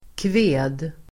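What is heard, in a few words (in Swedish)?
"kved", Swedish; Uttal: [kve:d]; Se kvida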